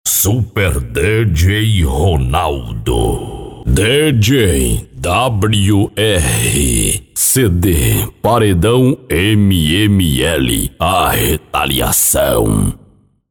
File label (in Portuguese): vinhetas; São vinhetas sem efeitos sonoros de fundo, voz tratada, equalização e limpeza de ruídos